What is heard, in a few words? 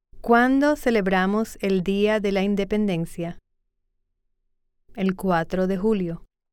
Spanish